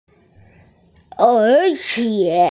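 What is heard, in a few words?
"moreover", Mandarin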